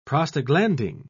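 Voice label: "prostaglandin", British English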